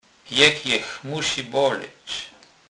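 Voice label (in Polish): "jech", Polish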